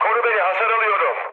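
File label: Turkish aircraft damaged, mood med, speech; War Thunder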